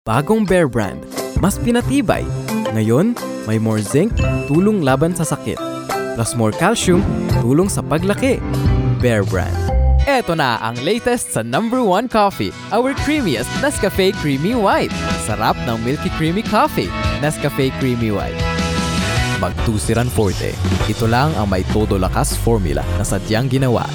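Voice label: Filipino Commercial, voice over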